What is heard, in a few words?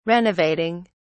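"renovating", English, British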